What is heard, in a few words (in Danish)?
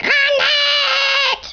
"Grenade", Danish